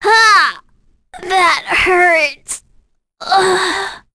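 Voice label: Vox Dead, English; Leo; kings raid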